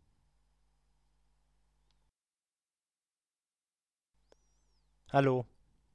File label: headset audio sc260